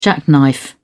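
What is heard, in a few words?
"jackknife", British English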